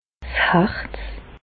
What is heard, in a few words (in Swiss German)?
"harz", Alsace, Bas Rhin; medecine